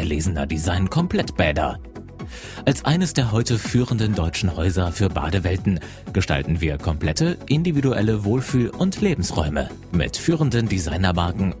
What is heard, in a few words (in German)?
Werbespot Sanitär; Wahl